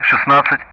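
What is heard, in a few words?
Speech Sound Russian; DCS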